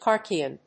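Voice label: "Locrian", English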